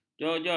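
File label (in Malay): "jonjot", Malay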